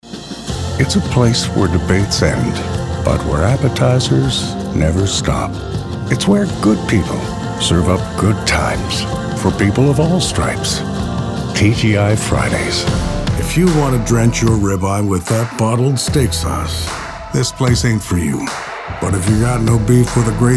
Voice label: Commercial